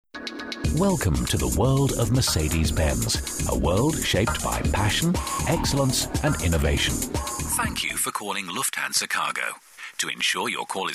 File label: male